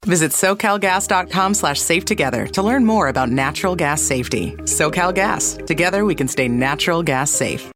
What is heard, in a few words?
reassuring bright announcer presentational friendly informational